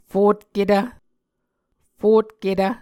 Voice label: "fotketv", Muscogee

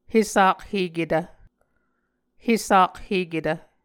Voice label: "hesakheketv", Muscogee

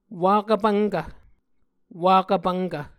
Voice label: "wakv pvnkv", Muscogee

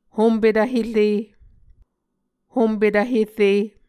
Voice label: "hompetv here", Muscogee

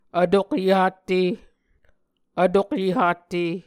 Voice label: "vtokyehatte", Muscogee

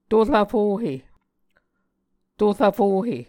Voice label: "to rvfohe", Muscogee

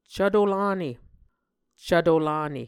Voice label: "cvto lane", Muscogee

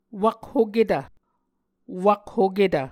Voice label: "wakhoketv", Muscogee

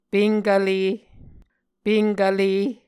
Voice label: "penkvle", Muscogee

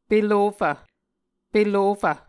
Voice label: "pelofv", Muscogee

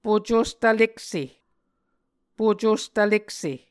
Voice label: "pocos tvlekse", Muscogee

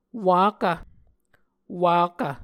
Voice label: "wakv", Muscogee

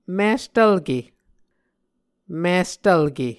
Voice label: "mistvlke", Muscogee

